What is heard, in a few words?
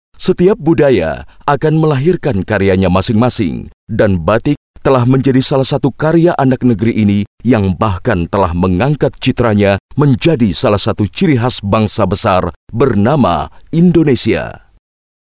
vo formal male